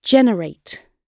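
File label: "generate", British English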